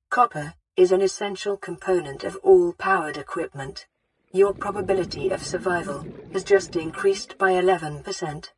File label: Updated AI Speech